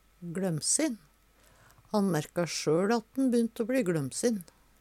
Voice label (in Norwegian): glommsin, Numedalsmål; Adjektiv